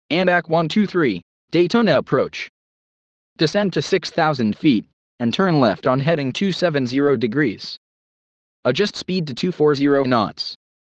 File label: ATC Belly landing